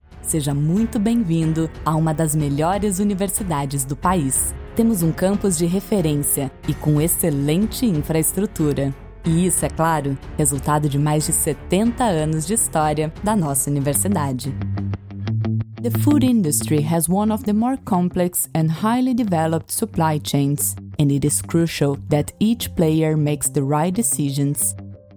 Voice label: institucional bilingue

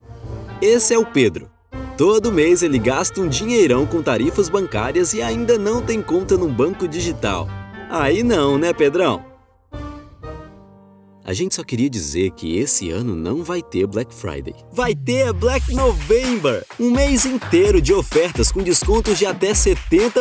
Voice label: Voz jovem

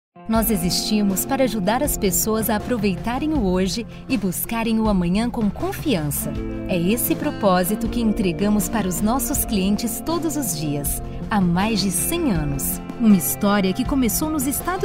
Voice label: Institucional; Liberty Seguros

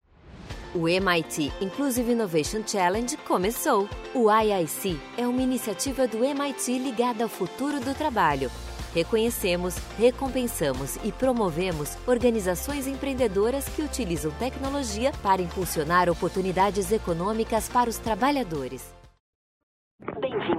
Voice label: PUBLICIDADE

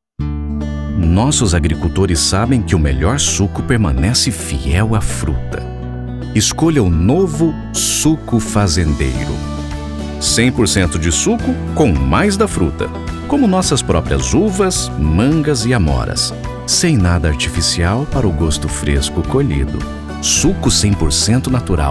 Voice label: Voz Acolhedora; Suco Fazendeiro

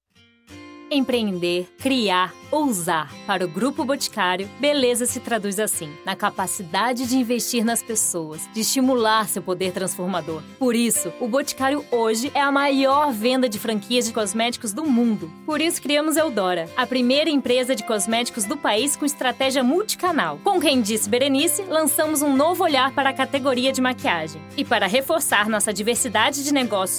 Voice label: Institucional; Boticario

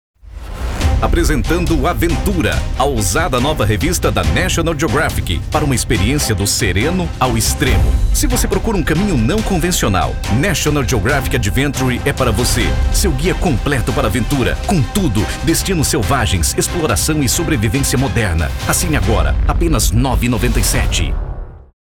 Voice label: Voz Impactante; National Geographic

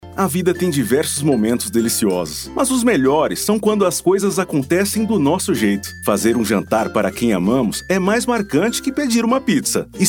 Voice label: Locutor

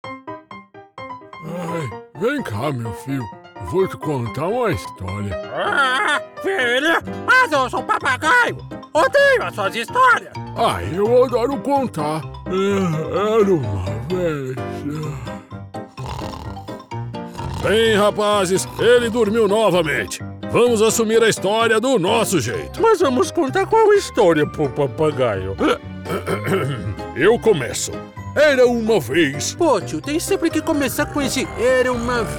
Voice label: voz caricata; Rascunho

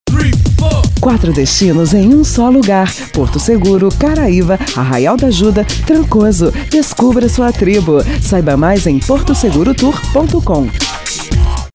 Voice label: Vinheta; 4 Destinos; So Lugar

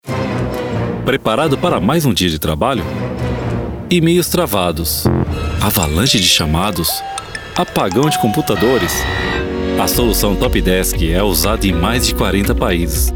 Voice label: Comercial; layouts

